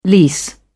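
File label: "lease", English, British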